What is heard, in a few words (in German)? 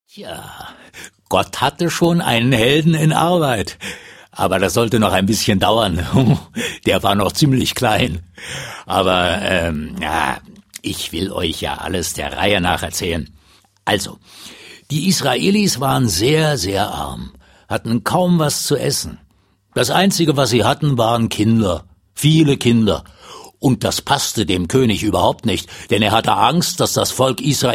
Erzaehler